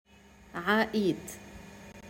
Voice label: "3a id" arabic pronunciation